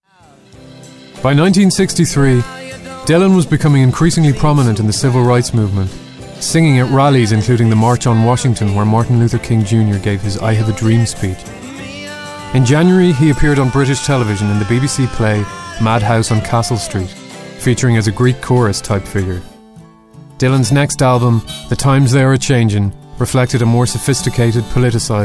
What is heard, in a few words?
Documentary